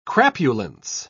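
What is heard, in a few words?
"crapulence", English, British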